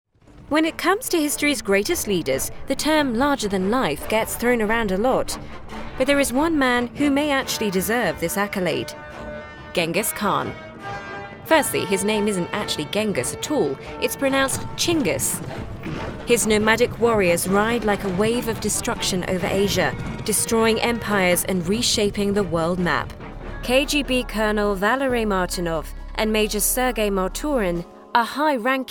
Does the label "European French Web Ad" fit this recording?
No